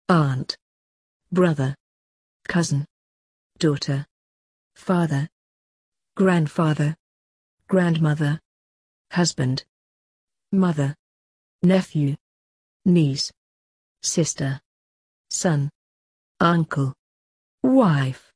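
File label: British; family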